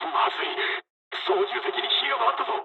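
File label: on fire mood low, speech japanese; War Thunder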